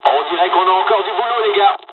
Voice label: dialog, dialogs french; War Thunder

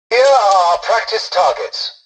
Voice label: tutorial dialogue, english; War Thunder

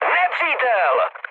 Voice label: enemy detected, speech cze, mood high

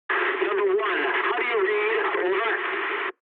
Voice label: eng chat, speech eng

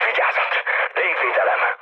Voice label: damaged aa, mood high, speech hun; War Thunder

